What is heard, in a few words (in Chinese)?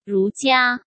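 "儒家", Mandarin Chinese; chinese-flashcards; hsk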